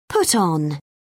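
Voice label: "put on", British English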